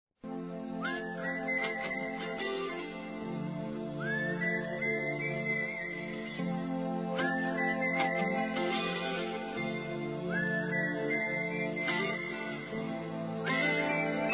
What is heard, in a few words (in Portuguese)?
PARABÉNS VOVOS CORUJAS M